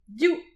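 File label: "div", Breton; petit precis de prononciation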